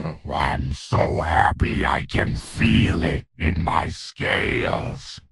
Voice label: happy; fertig classic games; croc